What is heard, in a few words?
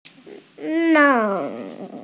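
"leng3", Chinese, female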